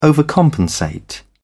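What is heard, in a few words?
"overcompensate", British English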